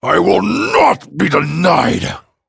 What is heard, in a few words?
Male Dragon Attack